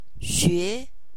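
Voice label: "nv xue2", Chinese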